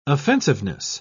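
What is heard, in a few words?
"offensiveness", British English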